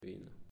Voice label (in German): "Vino" Spanisch